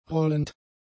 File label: "rollend", German; adjectives